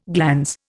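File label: "glaring", Indian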